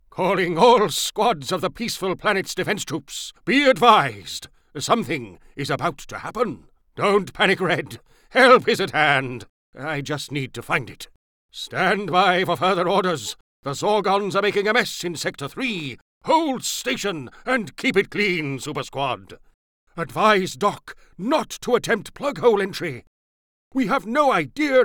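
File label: English UK, Characters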